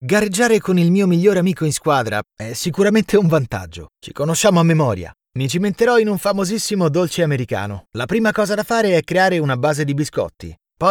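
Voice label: doblaje, Italian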